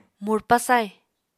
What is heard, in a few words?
"murpa sai", Apatani